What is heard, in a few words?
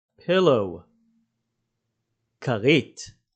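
"pillow" English, "כרית" Hebrew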